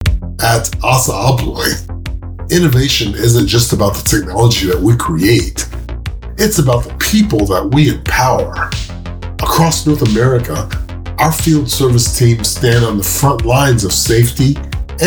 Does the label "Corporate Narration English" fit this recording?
Yes